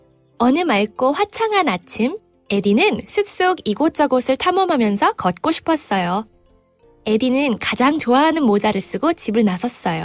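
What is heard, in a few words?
Korean, Army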